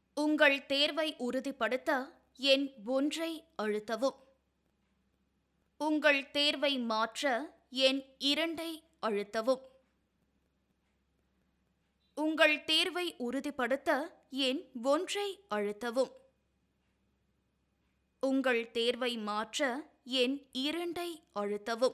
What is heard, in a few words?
ivr Tamil